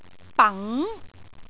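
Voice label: "bang2", Cantonese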